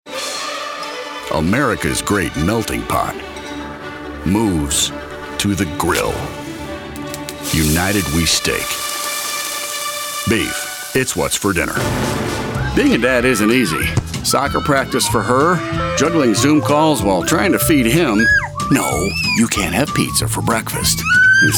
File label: COMMERCIAL